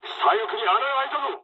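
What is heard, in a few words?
Japanese left wing mood med; War Thunder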